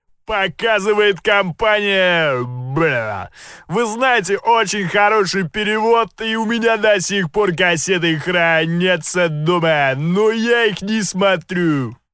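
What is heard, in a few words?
perevodchik filmov, film translator voice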